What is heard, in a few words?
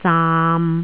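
"zaam6", Cantonese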